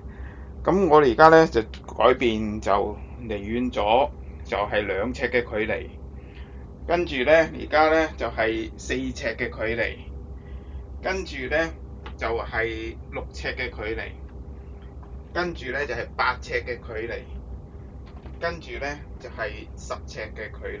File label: speaker phone test